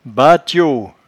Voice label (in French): "batiaux", patois jura